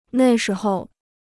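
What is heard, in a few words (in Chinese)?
"那时候" Chinese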